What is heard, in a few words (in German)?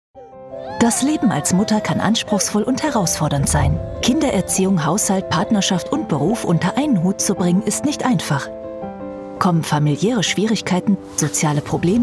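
erklaerf, Sprecherin; Sprecher in Berlin, Bremen, Hamburg, Hannover, Kiel, Lübeck, Lüneburg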